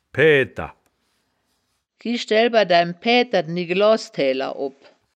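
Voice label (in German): "paeaedter", Wittlicher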